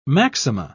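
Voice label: "maxima", British English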